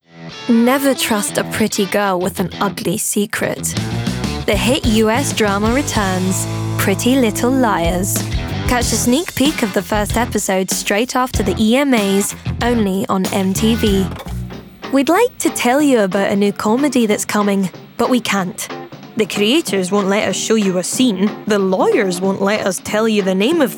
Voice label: Glasgow, RP ('Received Pronunciation'), Scottish, Promo, Cool, Energetic, Confident, Bold